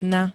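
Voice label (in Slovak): "na", Slovak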